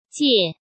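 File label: "戒" Mandarin Chinese; chinese flashcards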